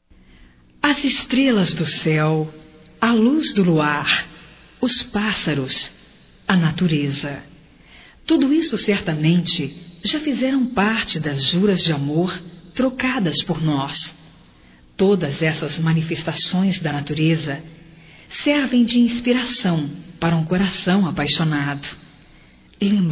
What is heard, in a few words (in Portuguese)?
Boda de Prata Feminino; Bodas de casamento; Telemensagens 2017